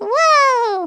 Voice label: "WOBBLE", Speech; Worms Armageddon